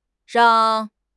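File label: "rang4", Chinese; HSK2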